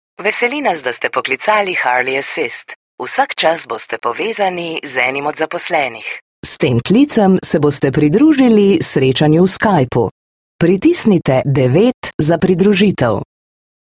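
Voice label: slovenian interactive voice response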